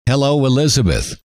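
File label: "hello", male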